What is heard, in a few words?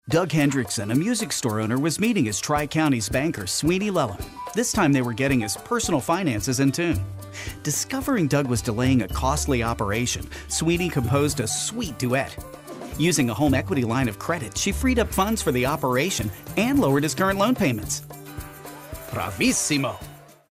conversational